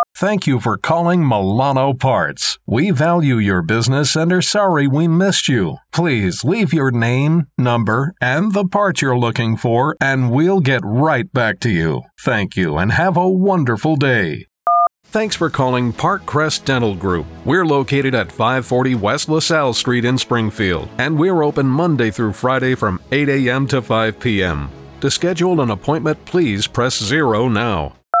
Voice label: On Hold Phone and IVR